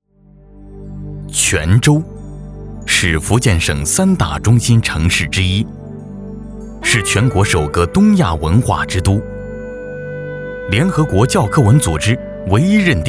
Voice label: 男 纪录片 人物; 泉州人物

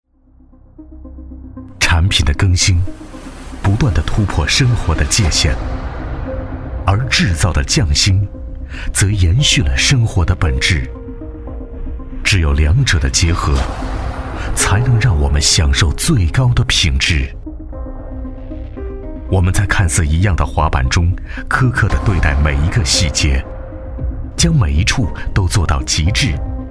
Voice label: 男 专题 产品解说类 品质 权威感; 陆艇滑板

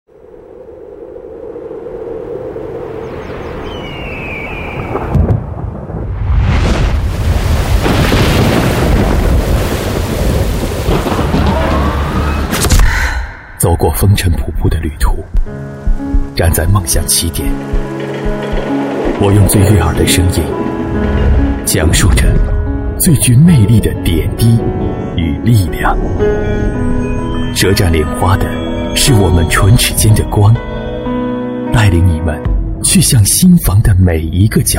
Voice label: 男 旁白 宣传独白（坚定深沉）

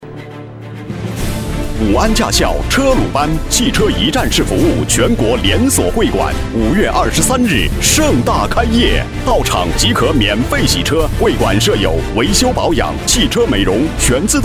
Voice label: 男99-广告-驾校; 武安驾校

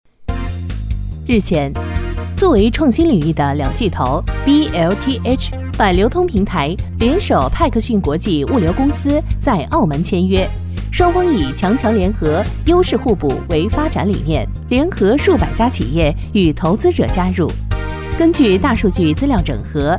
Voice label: 女-新闻-新闻样音

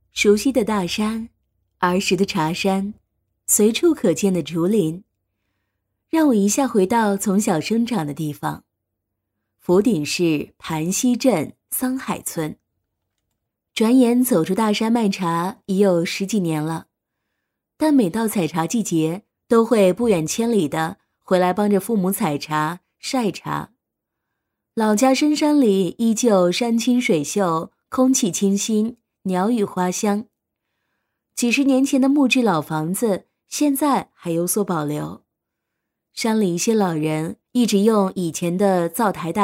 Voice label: 女 旁白; 熟悉的大山

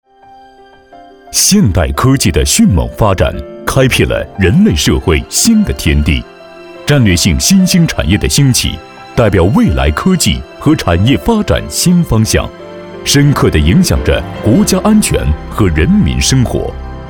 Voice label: 男 专题

